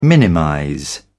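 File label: "minimize", British English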